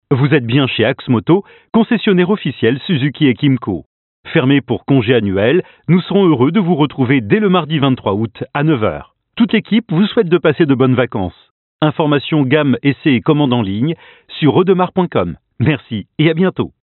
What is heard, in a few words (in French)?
REPONDEUR VOIX; audiotel